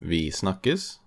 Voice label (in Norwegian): "Vi snakkes", Norwegian